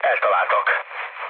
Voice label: aircraft destroyed, speech hun; War Thunder

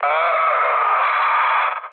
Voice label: damaged pilot, speech hun; War Thunder

